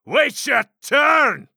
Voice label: Crossbowman Semiwhelmed